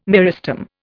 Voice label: "meristem", Indian

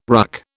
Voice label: "RUC", British

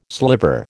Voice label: "sliver", American

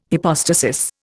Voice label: "hypostasis", Indian

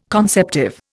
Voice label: "conceptive", Indian

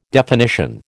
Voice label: "definition", American

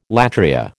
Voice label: "latria", American

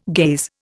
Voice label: "gaze", Indian

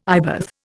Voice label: "eyebath", Indian

